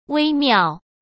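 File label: "微妙" Mandarin Chinese; chinese flashcards; hsk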